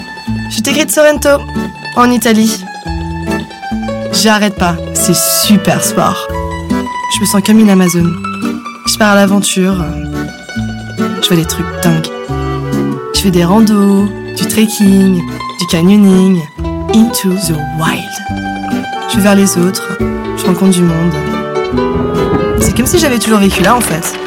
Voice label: French